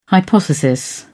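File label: "hypothesis", British English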